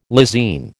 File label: "lysine", American